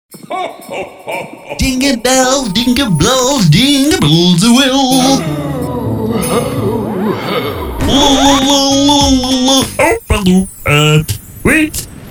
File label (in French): Jingle Long ta radio passe en mode noël